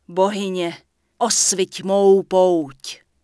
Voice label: Night Elf Female Pissed; wowpreklad; czwow; NightElfVocalFemale

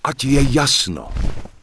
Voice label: Human Male Help; wowpreklad; czwow; HumanVocalMale

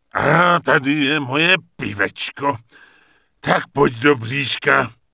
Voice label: Rifleman Pissed; wowpreklad; czwow

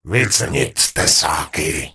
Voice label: Gnome Male Hello; wowpreklad; czwow; GnomeVocalMale

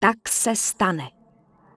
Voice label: Night Elf Female Standard NPC Vendor; wowpreklad; czwow

